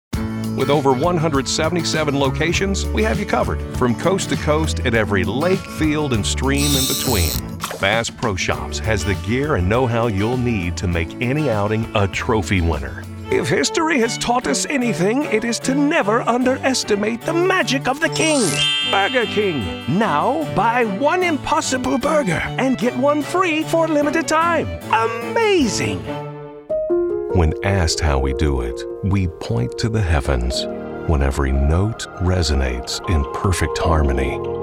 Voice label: commercial, english male voices american accent